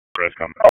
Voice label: Police Northwest District Tac 1